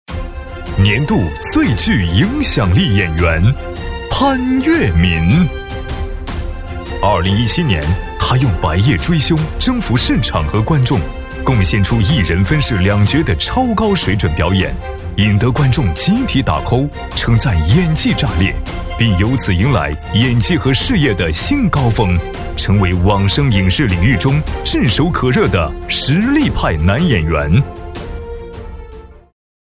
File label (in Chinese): 男, 颁奖, 大气, 浑厚; 年度网络剧盛典